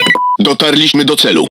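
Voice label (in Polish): Speech Autopilot Destination, Polish; World Of Warships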